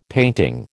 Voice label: "painting", American

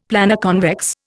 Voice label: "Planoconvex", Indian; Dictionary

